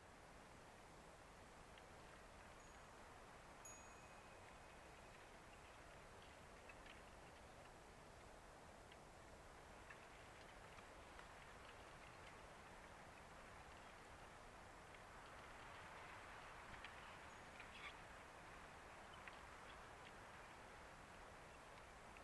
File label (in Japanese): 添い寝 寝かしつけ; マンチカン猫編